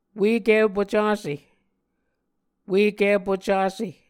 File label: "ueki pucase", Muscogee